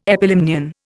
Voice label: "epilimnion", Indian